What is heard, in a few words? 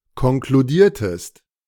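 "konkludiertest", German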